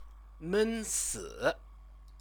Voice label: "mensi", Chinese

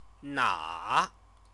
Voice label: "na", Chinese

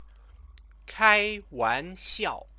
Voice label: "kaiwanxiao", Chinese

